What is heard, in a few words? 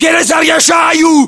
inform reloading generic, battlechatter ru; english; localized english